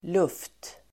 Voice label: "luft", Swedish

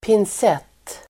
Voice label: "pincett", Swedish

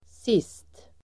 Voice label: "sist", Swedish

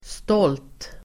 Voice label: "stolt", Swedish

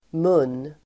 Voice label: "mun", Swedish

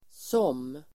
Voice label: "som", Swedish

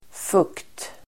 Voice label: "fukt", Swedish

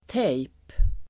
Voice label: "tejp", Swedish

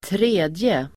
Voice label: "tredje", Swedish

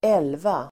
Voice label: "elva", Swedish

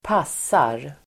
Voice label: "passar", Swedish

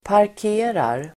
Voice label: "parkerar", Swedish

